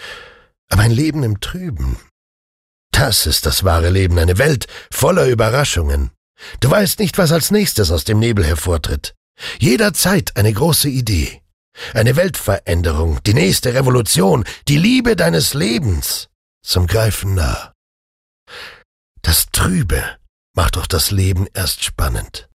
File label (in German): Klarheit Dynamisch